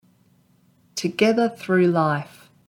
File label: Together through life, en au; trainstation